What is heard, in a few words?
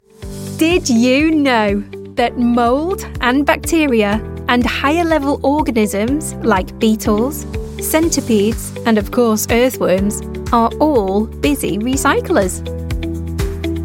manchester female elearning